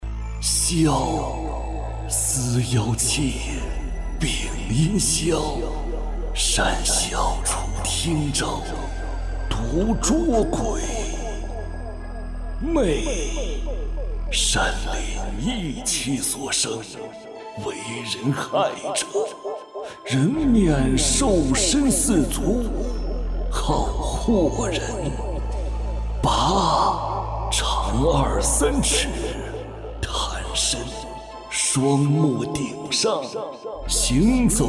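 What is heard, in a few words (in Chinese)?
角色 男 恐怖沙哑 加效果 魈; 角色-男27-恐怖沙哑-加效果--魈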